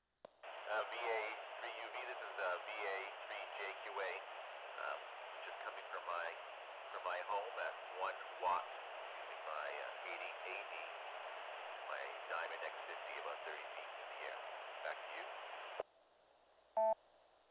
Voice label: fm; mississauga